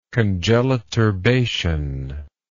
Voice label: "congeliturbation", English